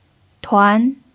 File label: "tuan2", Mandarin pinyin